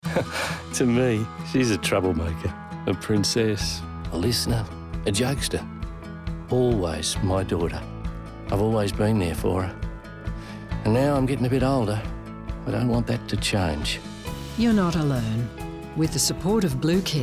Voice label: RADIO; BLUECARE; Always Daughter